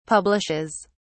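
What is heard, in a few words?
"publishes", British English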